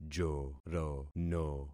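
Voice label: "jorono", concatenated syllables; Affixing experiment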